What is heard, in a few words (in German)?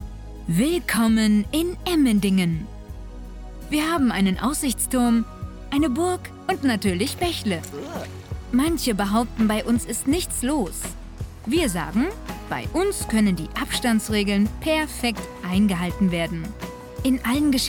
Imagefilm jung serioes augenzwinkernd; Emmendingen